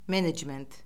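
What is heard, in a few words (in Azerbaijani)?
"menecment", Azerbaijani; Orfoqrafiya Lüğəti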